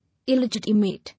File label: "Illegitimate", English